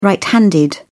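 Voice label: "right-handed", British English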